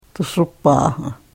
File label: karuk